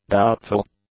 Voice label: "doubtful", English; adjectives